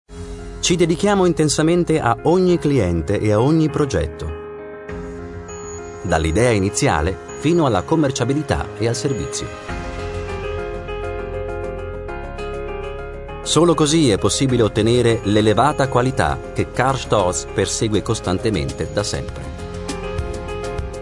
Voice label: Industriefilm; Karl Storz

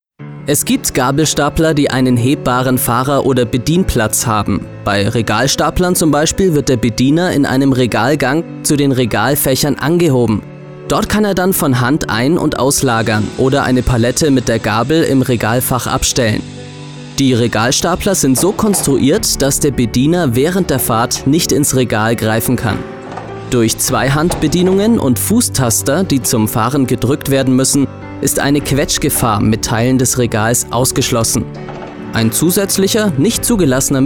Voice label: Imagefilm

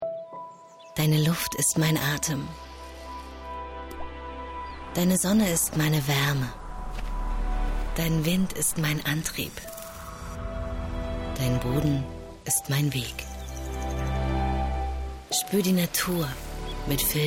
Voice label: TV Werbung Mineralwasser; VILSA

